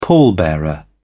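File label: "pallbearer", British English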